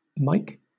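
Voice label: "mike", British English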